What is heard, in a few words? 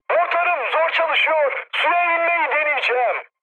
damaged engine, mood high, speech tur; War Thunder